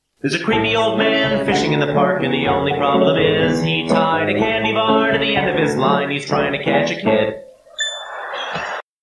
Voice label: Creepy Old Man

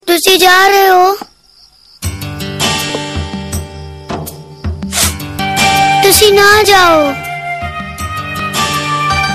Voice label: the cute child voice

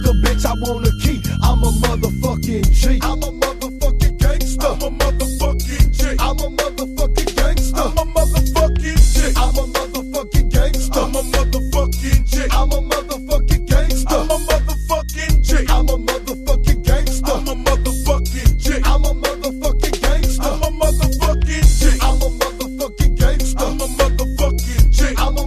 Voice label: Is Calling Pop, ringtones

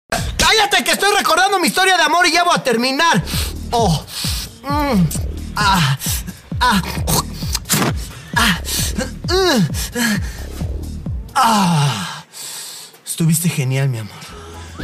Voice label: Is Calling RNB

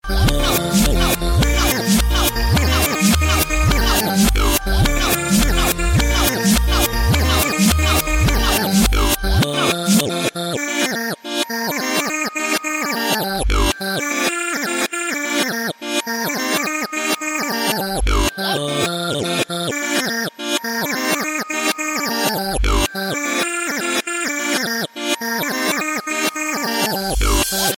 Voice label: Hello Reverse

